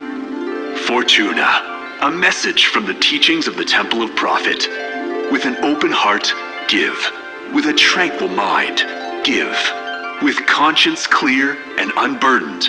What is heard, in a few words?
PA System, en; Warframe; Solaris Venus